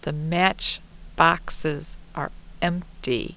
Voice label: clear quiet